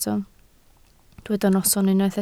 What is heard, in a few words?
"noson", Welsh